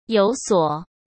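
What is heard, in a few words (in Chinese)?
"有所", Mandarin Chinese; chinese-flashcards; hsk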